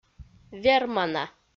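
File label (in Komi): "вермана", Komi, female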